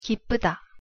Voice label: "기쁘다", Korean